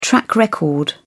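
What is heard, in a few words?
"track record", British English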